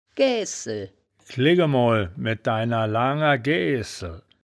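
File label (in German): "gaeaehsel", wittlicher; Gäähsel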